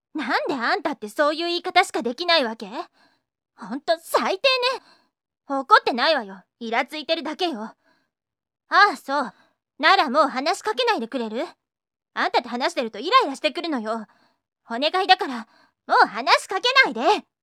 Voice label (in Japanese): ぷりぷり女の子; 怒るというか怒鳴るというか